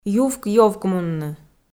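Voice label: "ювк-ёвкмунны", Komi, female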